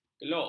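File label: "kelok", Malay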